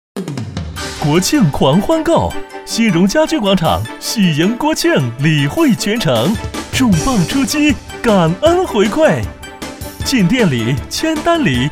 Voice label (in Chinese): 购物广告; 广场大放价